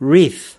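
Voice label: "wreath", US English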